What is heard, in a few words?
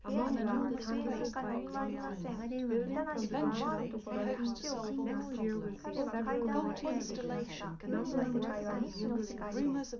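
female, live recording, 250 ms, 5 cm, mix; SiSEC11; underdetermined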